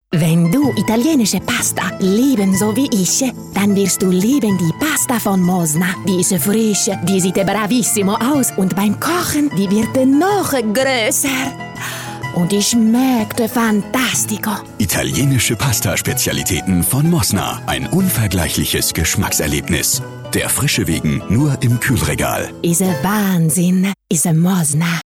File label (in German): Akzent Italienisch